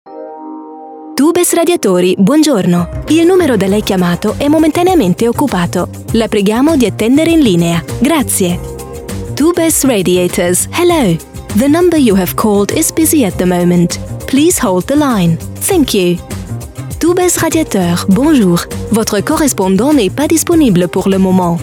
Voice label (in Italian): Segreteria Italiano Inglese Francese Tedesco Spagnolo